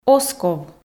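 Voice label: "оз ков", Komi, female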